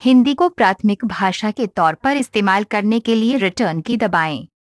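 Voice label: Voice Over Instructions Hindi; Language Chooser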